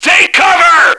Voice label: take cover, radio, male

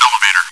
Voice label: chitchat; svencoop

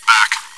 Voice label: chitchat, bot, voice commands; svencoop

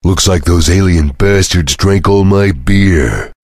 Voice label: chitchat; svencoop; voice commands; Duke

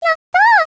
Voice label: cheer, voice commands; svencoop; Miku

